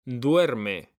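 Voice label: "DUERME", Spanish; mekanta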